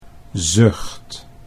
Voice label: "zucht", Dutch